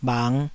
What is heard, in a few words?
"bhang5", taiwanese